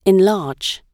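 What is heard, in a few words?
"enlarge", British English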